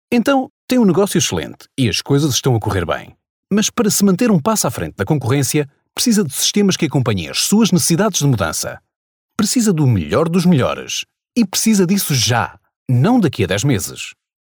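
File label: Portuguese, male, web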